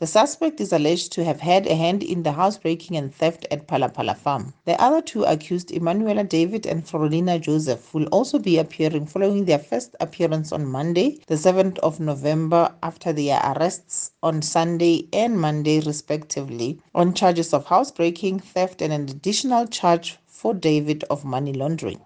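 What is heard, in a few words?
English; Phala Arrest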